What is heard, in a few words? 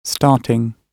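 "starting", British English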